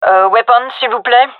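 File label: request weapon, female; vo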